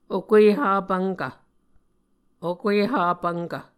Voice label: "okueha pvnkv", Muscogee

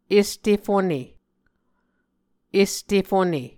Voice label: "este fone", Muscogee

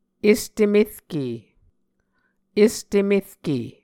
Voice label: "este merke", Muscogee

